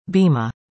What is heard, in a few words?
"bima", english; indonesian boy names